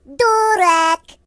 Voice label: Stupid, Russian; hedgewars